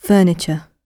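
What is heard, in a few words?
"furniture" spoken, British English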